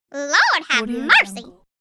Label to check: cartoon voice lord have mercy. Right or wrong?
Right